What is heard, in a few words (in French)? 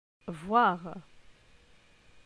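"Voir", French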